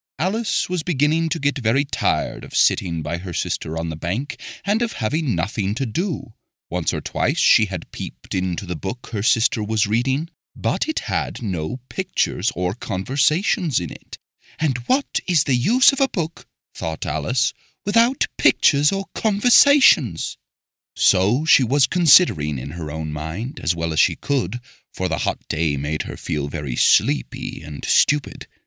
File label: narrator